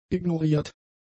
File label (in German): "ignoriert", German; adjectives